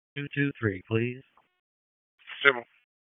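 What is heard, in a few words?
Police Northeast District Dispatch, p25; Raleigh; wake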